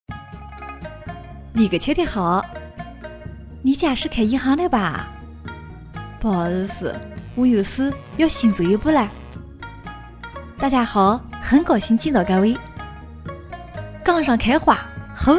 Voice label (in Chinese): 女 游戏 麻将; 合肥麻将